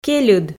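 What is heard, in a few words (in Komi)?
"кельыд", Komi, female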